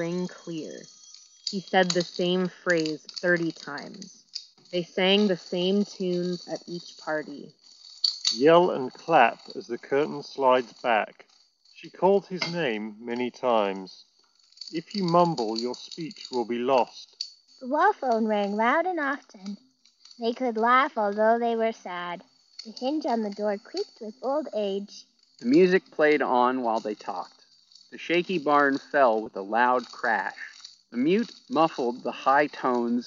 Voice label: Bose QuietComfort 45 Office microphone